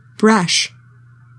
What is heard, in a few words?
"brush", English; 3000 words